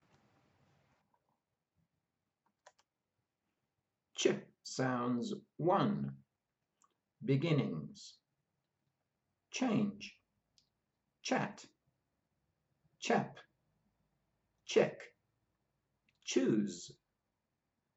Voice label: CH sounds, pronunciation of australian english

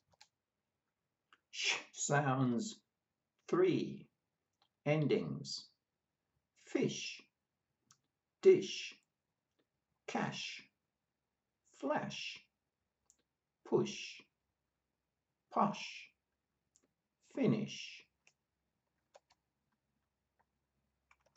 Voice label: SH sounds, pronunciation of australian english

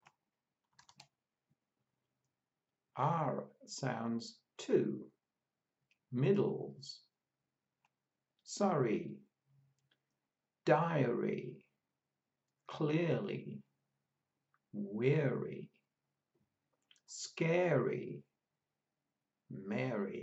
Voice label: R sounds, pronunciation of australian english

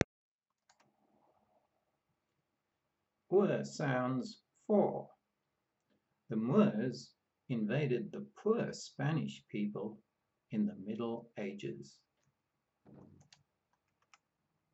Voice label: Our sounds, pronunciation of australian english

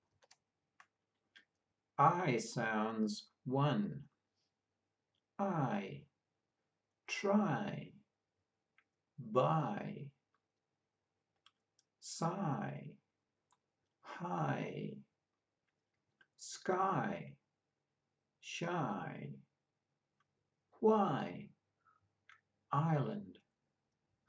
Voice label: Ai sounds, pronunciation of australian english

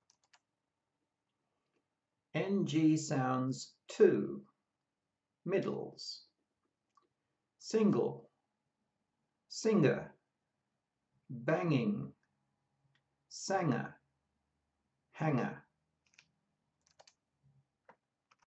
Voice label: Ng sounds, pronunciation of australian english

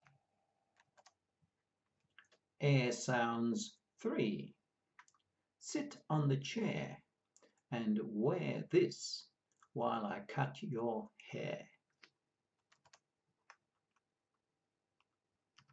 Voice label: Ere sounds, pronunciation of australian english

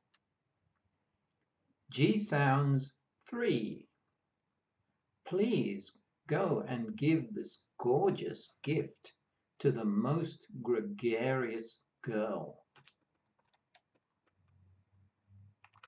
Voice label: G sounds, pronunciation of australian english

